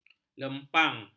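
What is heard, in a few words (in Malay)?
"lempang", Malay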